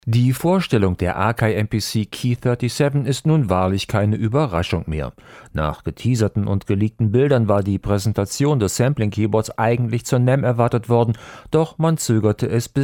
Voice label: XLR Radio Dark; Hercules Stream 200